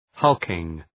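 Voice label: "hulking", English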